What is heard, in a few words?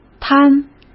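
"摊", Mandarin Chinese; chinese-flashcards; hsk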